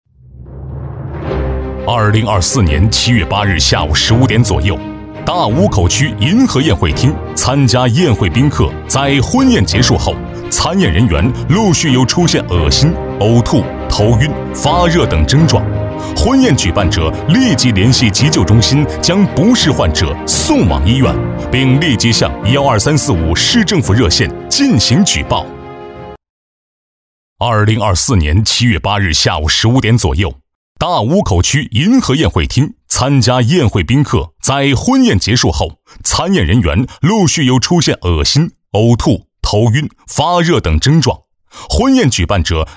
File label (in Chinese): 男 警示专题 报告; 第一节大武口区报告